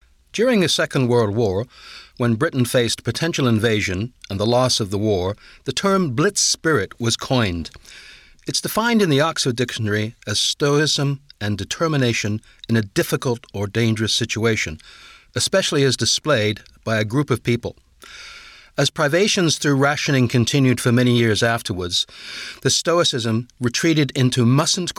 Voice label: Audiobook